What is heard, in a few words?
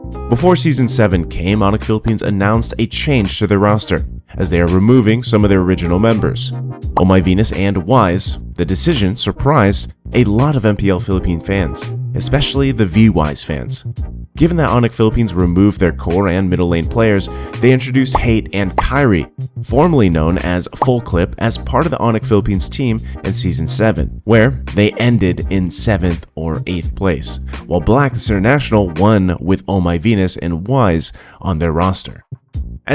Drama, English; MAN